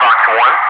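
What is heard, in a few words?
"FOX 2", English, Wingman; DCS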